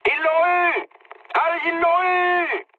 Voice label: aircraft destroyed mood med, speech fr; War Thunder

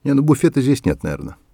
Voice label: mutter, voice; Escape from Tarkov

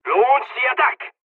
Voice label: midway dialog, dialogs serbian; War Thunder

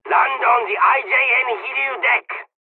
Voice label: landing, dialogs polish; midway jpn; War Thunder

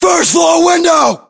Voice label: location first floor window, battlechatter us

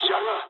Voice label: jp trick, speech jp

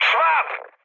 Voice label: enemy detected mood high, speech pol; War Thunder

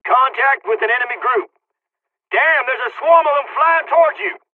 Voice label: dialog, dialogs serbian; War Thunder

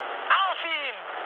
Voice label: attack position, mood high, speech ge

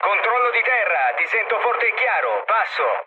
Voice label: chat, speech it; War Thunder

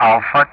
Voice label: "Alpha", ENG; Ka-50; Alphabet; DCS

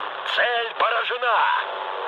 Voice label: bomb success, mood low, speech ru; War Thunder

